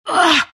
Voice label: temp pain; templar assassin